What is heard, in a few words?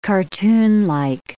"cartoonlike", English